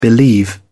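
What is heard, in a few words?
"believe", British English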